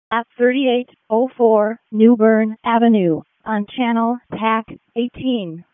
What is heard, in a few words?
EMS ALERT